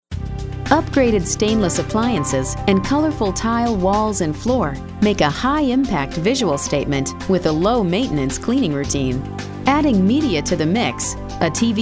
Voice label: informative

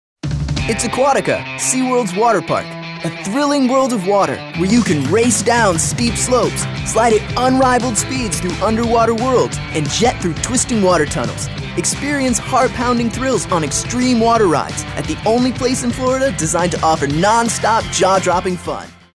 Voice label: High Energy

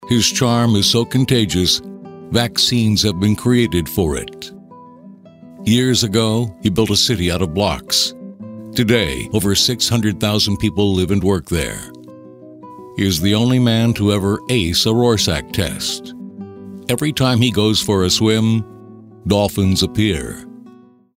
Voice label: narrative humorous

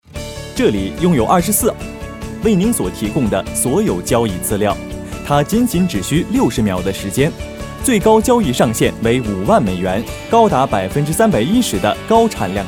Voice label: Mandarin, Corporate

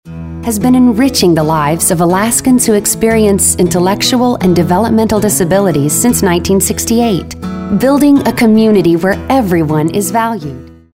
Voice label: caring

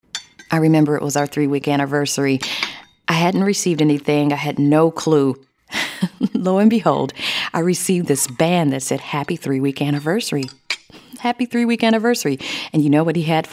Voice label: real people urban

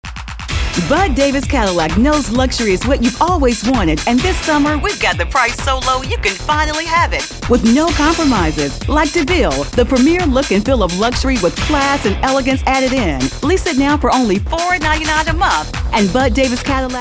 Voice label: hard sell

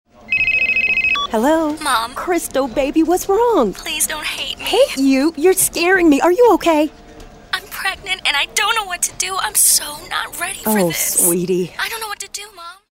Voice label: teenager

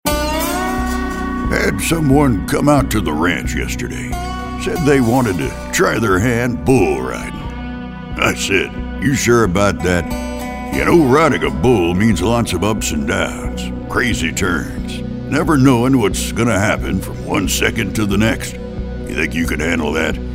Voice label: cowboy

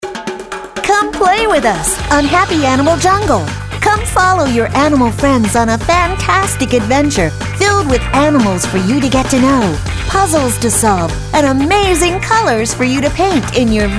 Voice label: perky

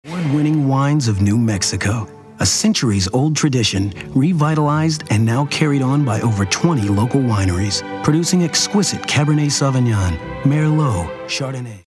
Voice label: Classy

